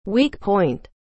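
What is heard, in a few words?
"weak point", English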